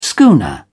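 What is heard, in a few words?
"schooner", British English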